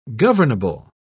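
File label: "governable", English, British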